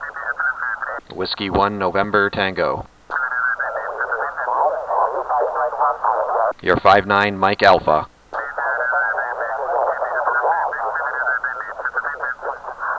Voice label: poland 20m ssb; 2009 arrl ssb